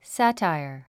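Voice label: "satire", English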